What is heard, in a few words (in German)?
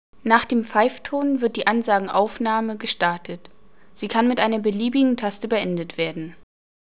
Ansagenaufnahme